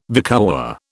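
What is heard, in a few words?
"vicuña", British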